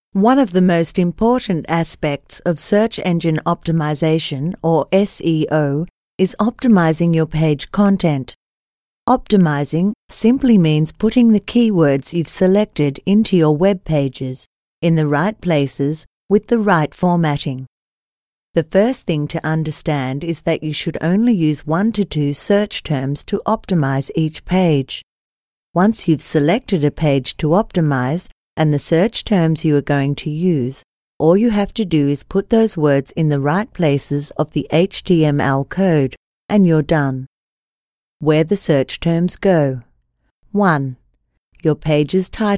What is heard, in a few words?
Educational Explainer Video Voiceover Sample